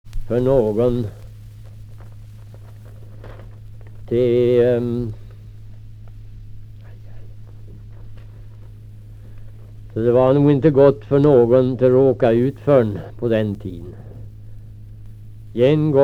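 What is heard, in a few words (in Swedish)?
Riala Uppland, dialekter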